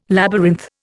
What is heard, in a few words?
"labyrinth", Indian